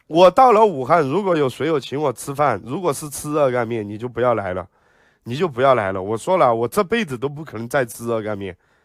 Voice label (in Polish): Uzdrawiający emocjonalny głos narracyjny do wellness i medytacji; healing emotional narration voice